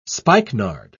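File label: "spikenard", British English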